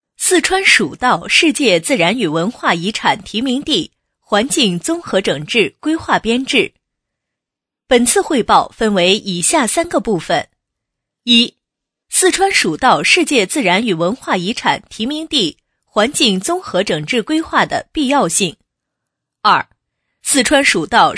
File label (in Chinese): 女声工作汇报 规划编制汇报